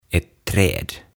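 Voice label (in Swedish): "Ett träd", Swedish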